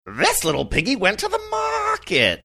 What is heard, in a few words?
saying this little piggy went to market funny